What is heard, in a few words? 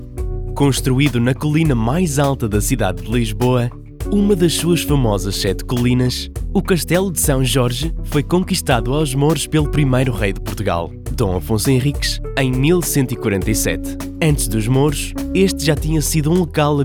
Audio Tour Guide PT